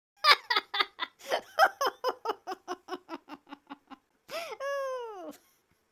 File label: happy, speech; Biggles